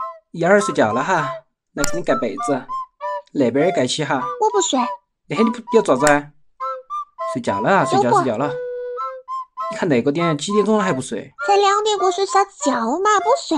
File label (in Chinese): 有趣视频配音四川话版